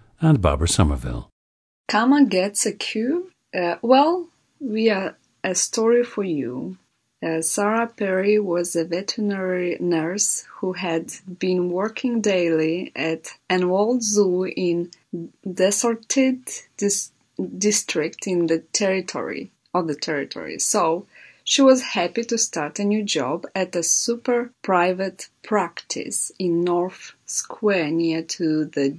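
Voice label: moldova, International Dialects of English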